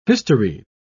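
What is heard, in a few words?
"historied", British English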